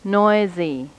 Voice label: vowel noisy